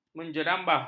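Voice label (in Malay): "menjerambah", Malay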